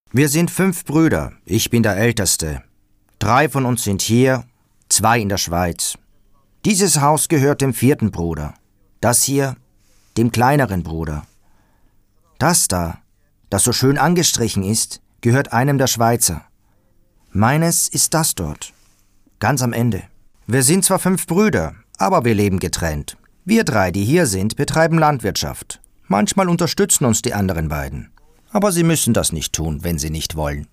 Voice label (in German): Synchronstimme; Kosovo